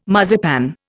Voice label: "marzipan", Indian

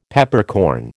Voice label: "Peppercorn", American

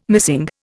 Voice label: "missing", Indian

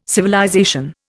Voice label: "civilization", Indian

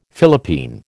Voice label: "Philippine", American

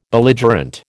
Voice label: "Belligerent", American

